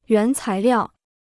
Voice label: "原材料", Chinese

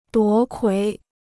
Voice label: "夺魁", Chinese

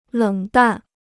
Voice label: "冷淡", Chinese

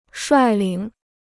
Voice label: "率领", Chinese

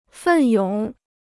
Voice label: "奋勇", Chinese

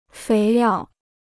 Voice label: "肥料", Chinese

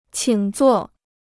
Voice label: "请坐", Chinese

